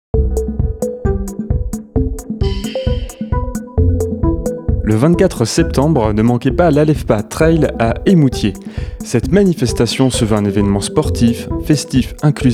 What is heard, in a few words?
SPOT; Trail